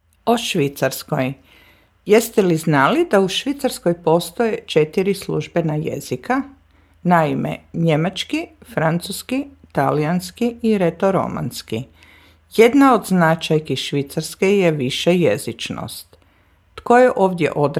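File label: Croatian; schweiz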